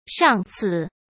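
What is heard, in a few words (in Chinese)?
"上次", Mandarin Chinese; hsk; chinese-flashcards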